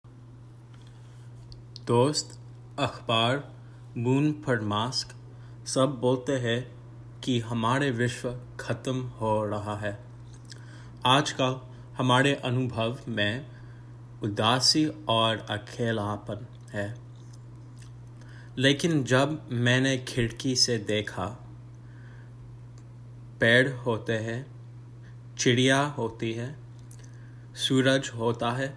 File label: Poem, Hindi